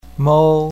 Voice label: "mou1", Chinese pinyin